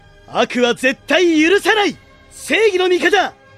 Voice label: male voice; nobuyuki hiyama; xenoverse 2